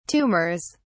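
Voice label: "tumors", British English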